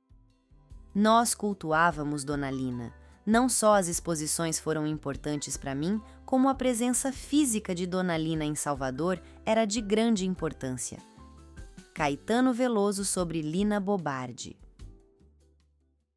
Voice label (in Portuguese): narracao; foto lina com gato